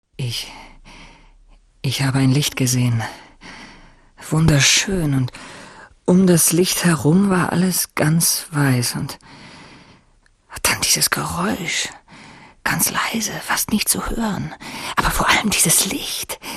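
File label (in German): synchron, sprecher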